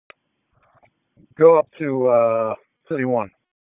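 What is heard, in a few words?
Raleigh Police Northeast District Dispatch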